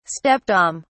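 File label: "stepdame", British English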